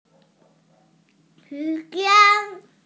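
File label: "time", Mandarin Chinese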